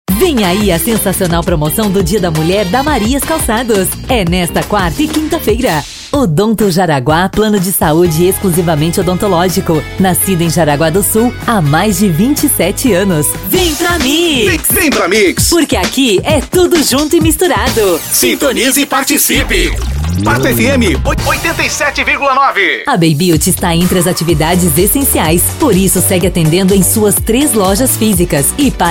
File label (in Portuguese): locutora